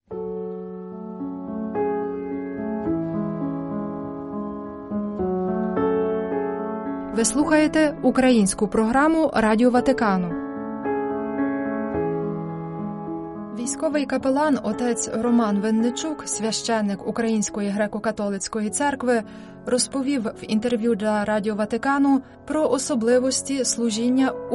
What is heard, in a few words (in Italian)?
ucraino